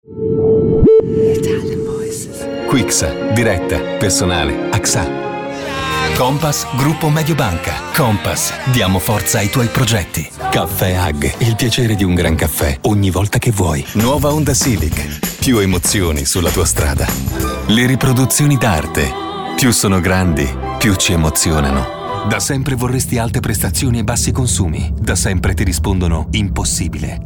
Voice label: ITALIAN VOICES Authoritative Reassuring, male voices